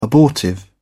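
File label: "abortive", English, British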